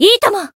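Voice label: Voice limit break Japanese; isuka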